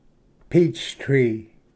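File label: "peach tree", English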